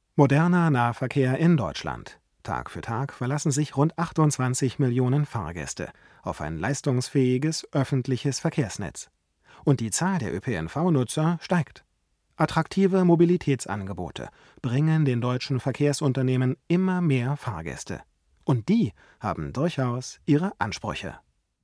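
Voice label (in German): tlm67 unbearbeitet, sprecherraum mikrofonvergleich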